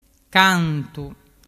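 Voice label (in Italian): "cantu", dialect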